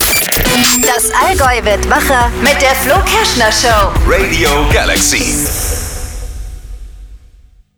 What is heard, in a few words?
Station Voice